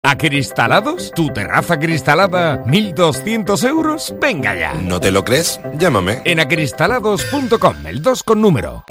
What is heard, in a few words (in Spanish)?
Anuncio Cortinas; Acristala2